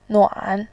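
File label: "nuan", Mandarin, tone 3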